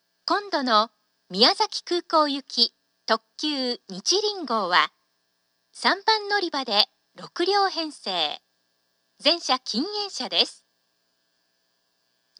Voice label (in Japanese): 案内放送; 宇佐; にちりん 宮崎空港行き